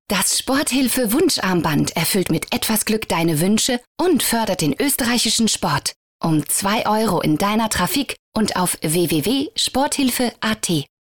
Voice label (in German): Frau OFF